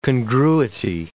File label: "congruity", English